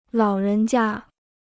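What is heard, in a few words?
"老人家", Chinese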